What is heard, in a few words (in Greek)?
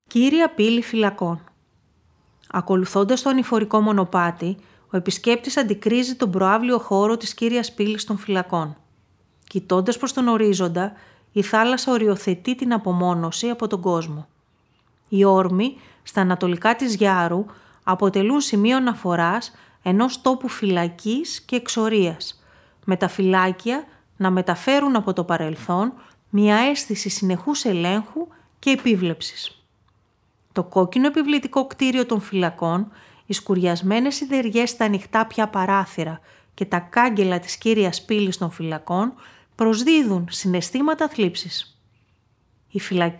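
Κύρια Πύλη Φυλακών, Ηχητική ξενάγηση; Γυάρο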